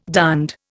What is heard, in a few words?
"darned", Indian